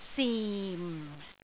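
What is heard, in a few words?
"sim4", Cantonese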